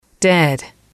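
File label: "dead", English, female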